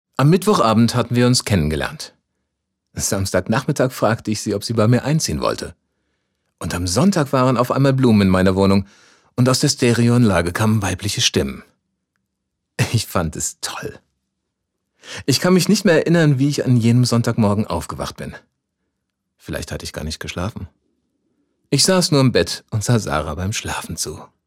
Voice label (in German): Erzaehler freundlich